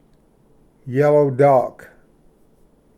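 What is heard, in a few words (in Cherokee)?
"yellow dock", Cherokee word, male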